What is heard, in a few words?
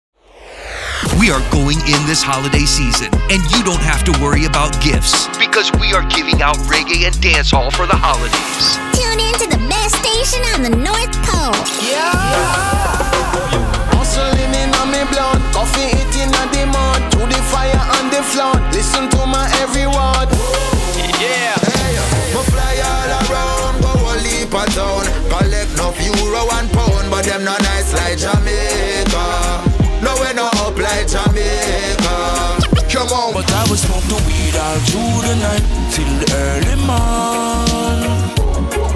Christmas Promo